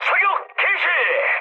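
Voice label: Korean attack position mood high, speech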